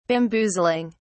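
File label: "bamboozling", British English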